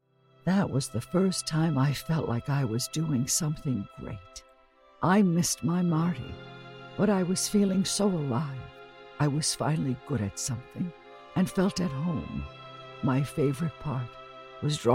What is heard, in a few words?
grandmother